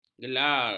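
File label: "gelar", Malay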